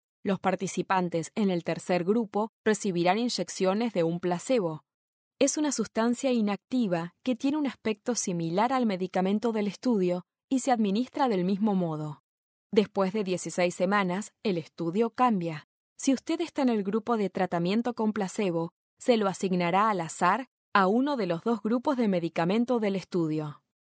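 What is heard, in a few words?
Spanish Latin America female narrative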